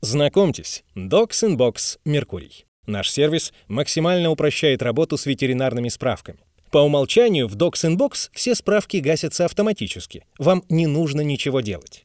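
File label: presentation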